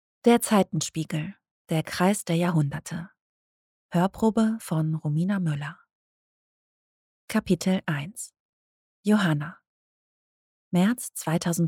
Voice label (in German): Fantasy, sprecherinnen